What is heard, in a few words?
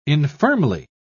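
"infirmly", British English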